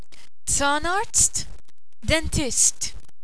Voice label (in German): zahnarzt, Maltesisch; berufe